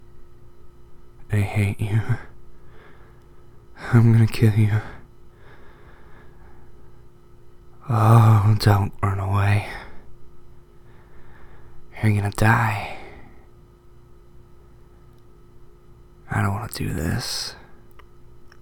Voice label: Horror Whisper Voice Angry

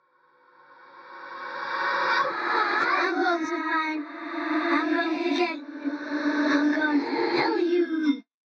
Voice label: Creepy Child Voice

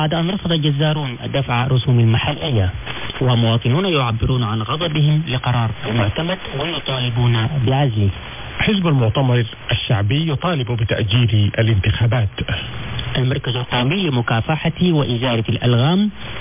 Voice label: Arabic Voices

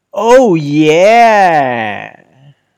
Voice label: "Oh Yeah" Cantonese Man

